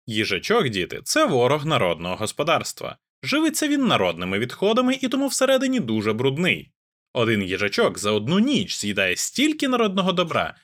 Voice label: yeti gx